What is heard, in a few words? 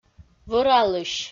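"вӧралысь", Komi, female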